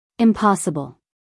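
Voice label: "impossible", American English, female; everyone-can-use-english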